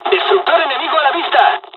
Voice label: dialog, dialogs spanish; us midway; War Thunder

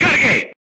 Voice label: voice message load, Spanish

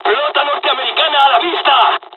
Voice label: dialog, dialogs spanish; War Thunder

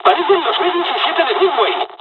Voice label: dialog, dialogs spanish; War Thunder

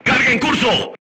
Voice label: voice message reloading, Spanish

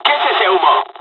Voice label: dialogs spanish; usa; War Thunder

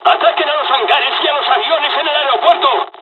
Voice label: dialogs spanish; War Thunder

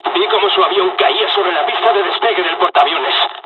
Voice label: dialog, dialogs spanish; War Thunder

